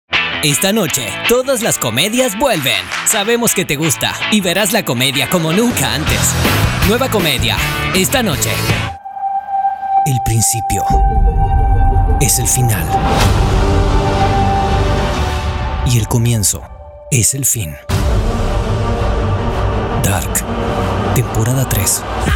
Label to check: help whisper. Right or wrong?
Wrong